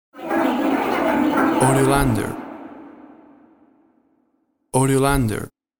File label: Witch Talk High